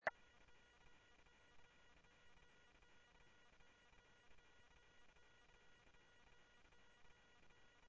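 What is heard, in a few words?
Sheriff West County, scanner audio; MCSO - WEST; ThompsonPeakScot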